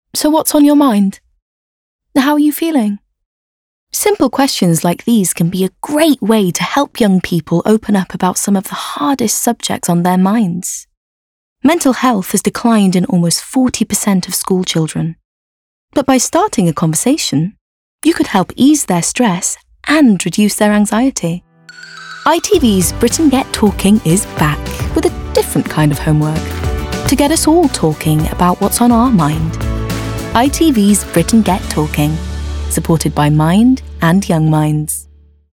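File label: Commercial, Received Pronunciation; ITV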